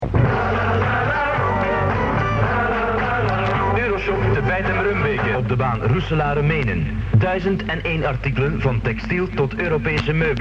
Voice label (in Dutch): reclame; Euro Shop; Mi Amigo